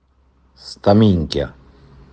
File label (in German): "sta minghia", italienisch dialekt